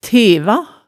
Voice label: "teva", hemsedalsmaalet tale

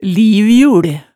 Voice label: "livjul", hemsedalsmaalet tale

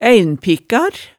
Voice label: "æinpikkar", hemsedalsmaalet tale

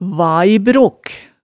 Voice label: "vaibrok", hemsedalsmaalet, tale

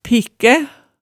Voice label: "pikkæ", Hemsedal

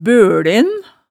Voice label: "bølen", hemsedalsmaalet tale

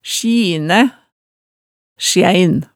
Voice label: "sjinæ", hemsedalsmaalet, tale

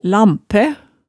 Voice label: "lampæ", hemsedalsmaalet tale

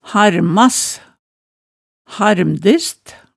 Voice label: "harmas", hemsedalsmaalet

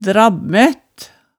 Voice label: "drabbmætt", hemsedalsmaalet tale

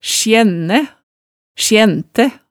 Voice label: "sjænnæ", hemsedalsmaalet

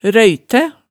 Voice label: "røytæ", hemsedalsmaalet

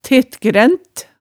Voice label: "tettgrænt", hemsedalsmaalet tale

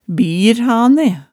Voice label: "birhane", hemsedals maalet tale

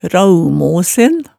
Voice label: "raumosen", hemsedalsmaalet, tale

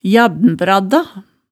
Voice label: "jabbnbradda", hemsedalsmaalet

